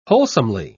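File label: "wholesomely", British English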